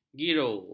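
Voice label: "giro", Malay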